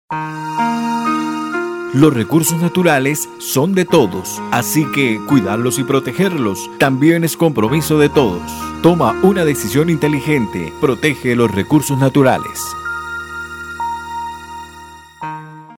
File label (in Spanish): Spot medio ambiente